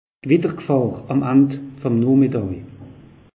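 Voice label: "gewittergfahr", Alsatian; meteo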